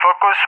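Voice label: "Focus", English speech; CallSign; DCS; Allied Flight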